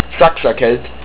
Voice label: German, estonian

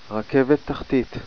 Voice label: "subway", Hebrew

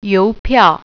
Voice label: stamps, mandarin

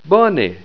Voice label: "Bone", Esperanto; Good

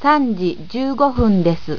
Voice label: "sanjijuugofundesu", japanese

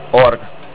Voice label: Valley, estonian

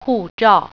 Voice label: "passport", Mandarin

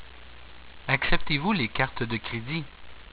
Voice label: "acceptez cartes de credit", french

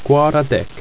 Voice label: "quarade", ido

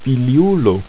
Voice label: "filiul", ido

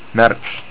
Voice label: March, estonian

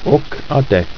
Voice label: "okadek", ido

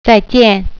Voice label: "goodbye", Mandarin

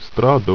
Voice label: "strado", ido

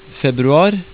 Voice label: "februar", Norwegian

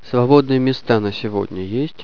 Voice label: "vacancy", russian

